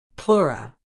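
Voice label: "pleura", medical English, British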